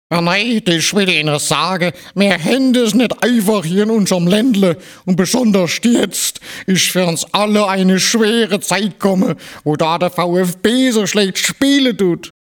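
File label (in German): Schwabe, Dialekte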